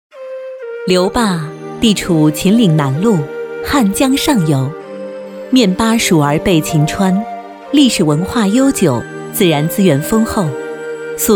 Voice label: 宣传片 旅游 电视风光片 大气; 留坝旅游; 电视风光片留坝旅游

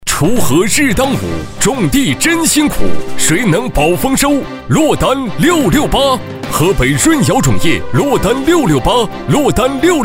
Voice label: 男 广告 农化

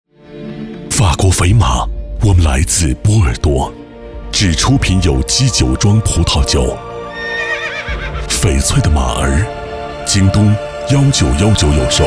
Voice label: 广告, 酒类, 成熟; 法国翡马

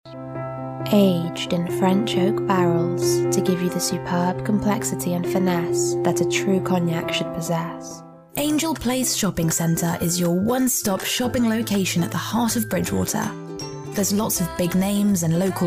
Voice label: 外籍女英 外语 英式英语 广告类

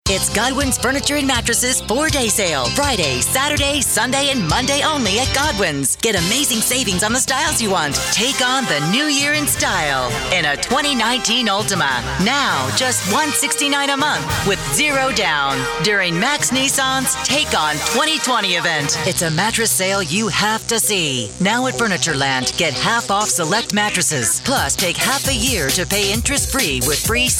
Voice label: 外籍女英 外语 美式英语 Retail

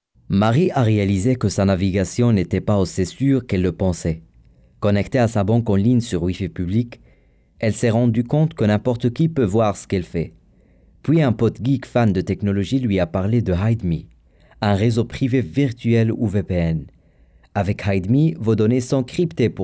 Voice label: 法语男 外语 浑厚; 小语种

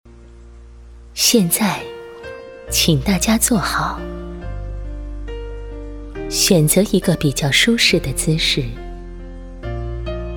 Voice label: 培训课件 瑜伽休息术动作提示

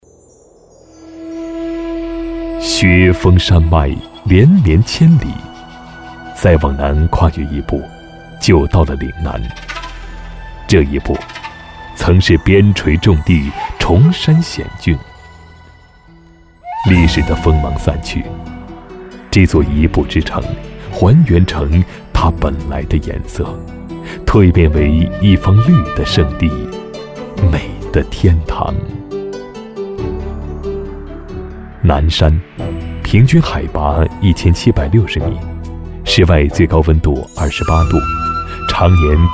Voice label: 宣传片 旅游 风景片 舒缓, 男国; 南山

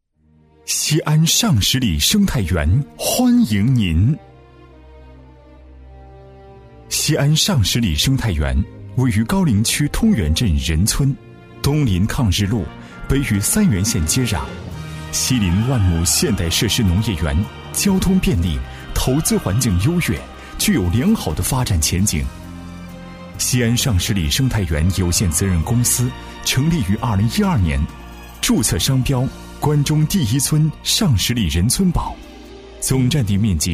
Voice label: 男 专题 磁性; 企业; 西安尚石里

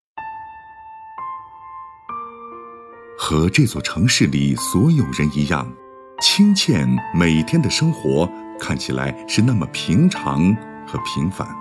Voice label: 男国 专题 人物 磁性; 青总个人事迹

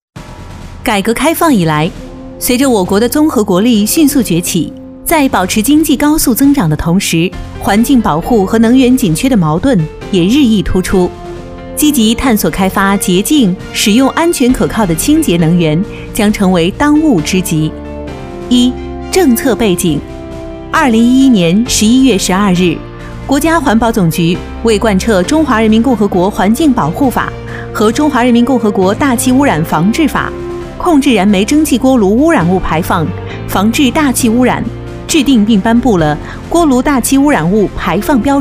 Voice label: 专题 汇报 锅炉清洁能源 大气

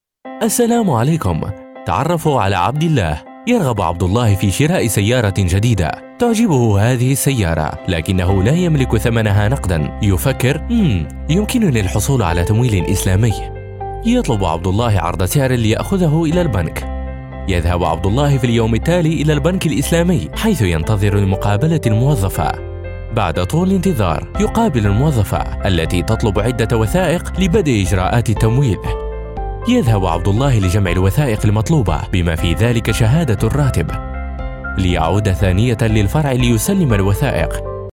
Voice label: 阿拉伯语男 外语 小语种 年轻

